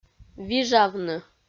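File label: "вижавны", Komi, female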